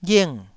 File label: "ghing4", taiwanese syllable